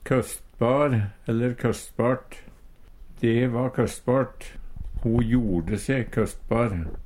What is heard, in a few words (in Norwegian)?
kostbar, Numedalsmål